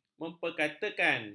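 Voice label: "memperkatakan", Malay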